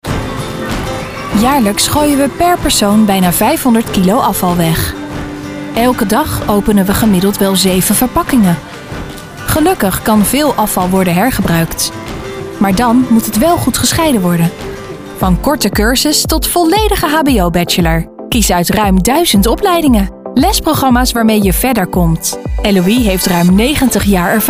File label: female Dutch fiction, institutional, commerical, smooth; 20-35